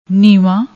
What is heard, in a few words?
"neeva", Punjabi